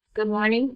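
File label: Voice Acting es, female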